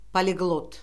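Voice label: "poliqlot", Azerbaijani; Orfoqrafiya Lüğəti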